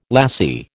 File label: "lassie", American